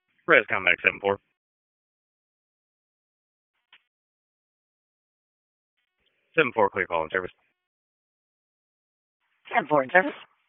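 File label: EMS DISP, radio; wake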